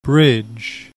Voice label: "BRIDGE", English

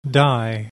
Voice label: "DIE", English

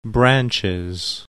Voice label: "BRANCHES", English

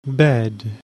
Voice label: "BED", English

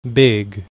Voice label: "BIG", English

